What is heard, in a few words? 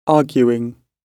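"arguing", British English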